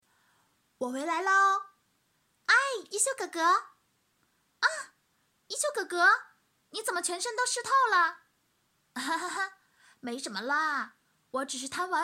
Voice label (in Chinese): 动画片配音; 聪明的一休